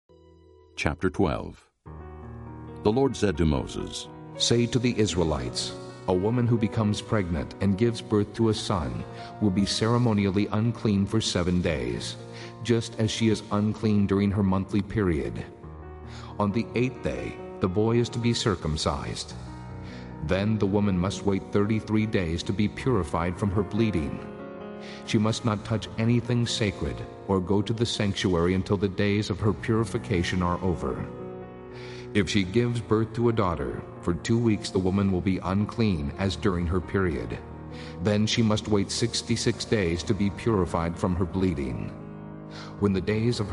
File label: leviticus 12, bible niv